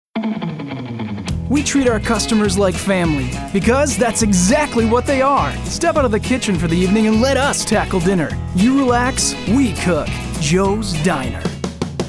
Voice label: Male commercial

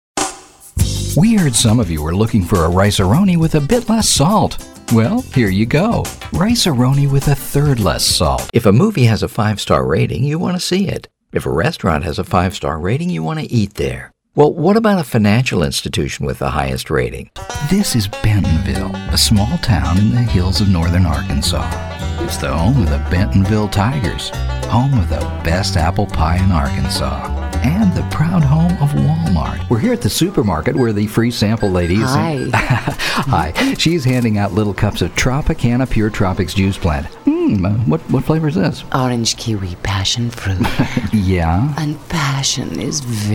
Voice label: Commercials

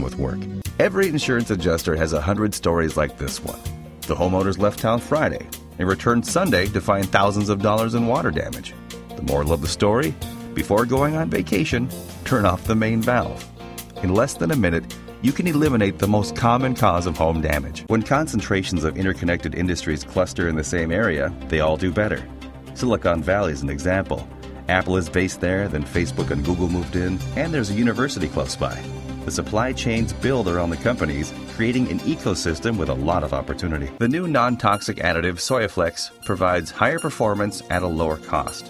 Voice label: male narration

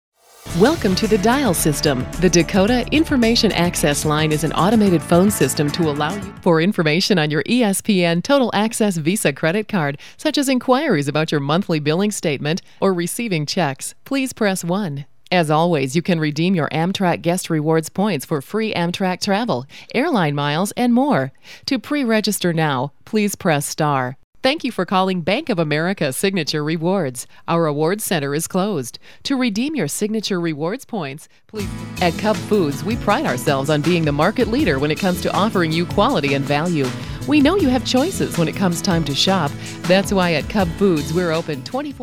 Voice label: IVR

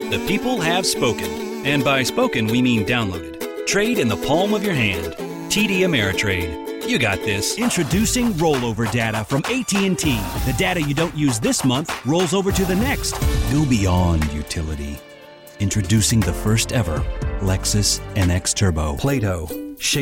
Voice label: Commercial

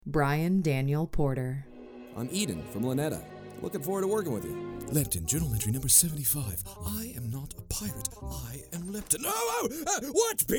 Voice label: Male, animation

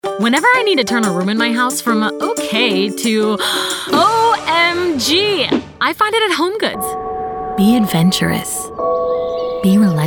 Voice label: Female commercial

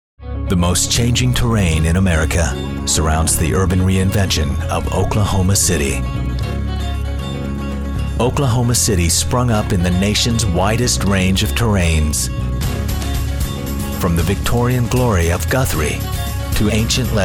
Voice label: Narration

